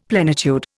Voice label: "plenitude", Indian; Dictionary